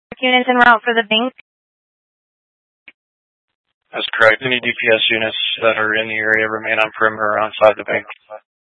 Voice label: Mutual Aid-Intersystem Interop B, scanner